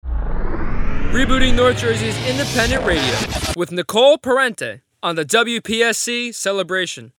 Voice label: Sweeper

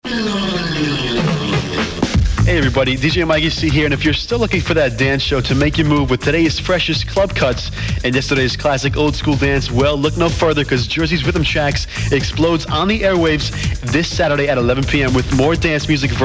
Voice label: PROMO Jersey Rhythm Tracks

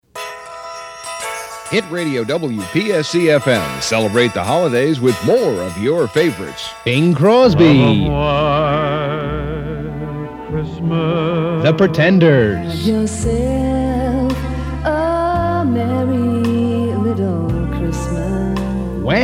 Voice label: SWEEPER; XMAS

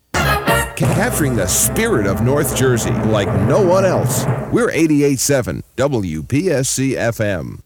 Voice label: SWEEPER; Capturing The Spirit

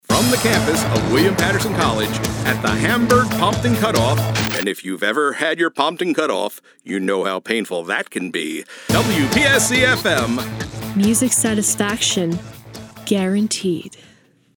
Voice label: Sweeper; APRadio; Pompton

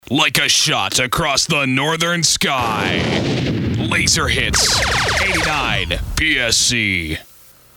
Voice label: SWEEPER Like A Shot

